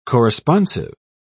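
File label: "corresponsive", British English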